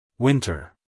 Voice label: "winter" t us male; everyone-can-use-english